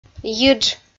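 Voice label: "йидж", Komi, female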